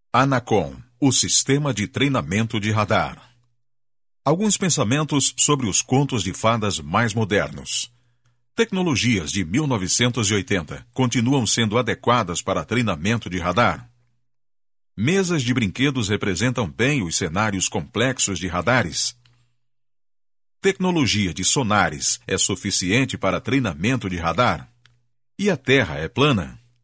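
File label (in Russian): голоса, Brazilian Portuguese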